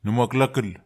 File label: "numaklakel", netwar